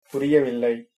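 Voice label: no understand, Tamil, male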